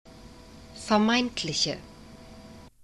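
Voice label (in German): "vermeintliche", German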